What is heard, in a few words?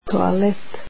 "toilet", croatian